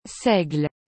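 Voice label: "seigle", French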